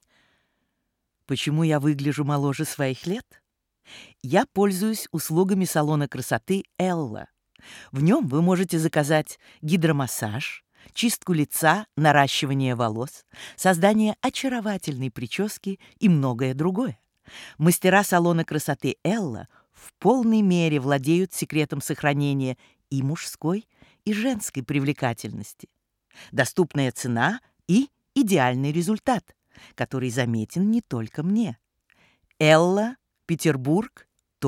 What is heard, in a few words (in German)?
Werbung; ELLA